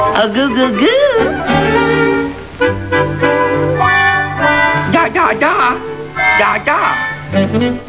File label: babytalk; Tom en Jerry